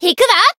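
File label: Voice attack, Japanese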